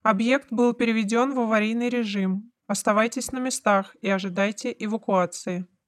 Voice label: announcer lights out; ISB Special Forces